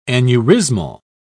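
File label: "aneurysmal", British English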